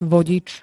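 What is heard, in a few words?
"vodič", Slovak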